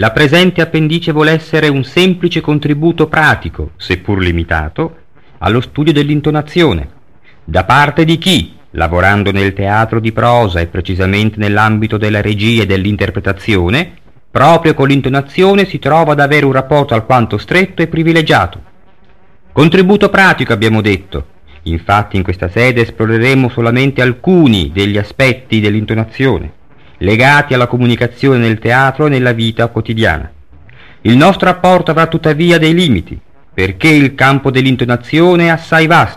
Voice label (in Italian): lettura